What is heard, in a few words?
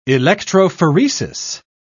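"electrophoresis", British English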